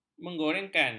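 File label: "menggorengkan", Malay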